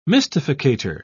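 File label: "mystificator", British English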